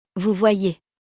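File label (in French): "vous voyez", French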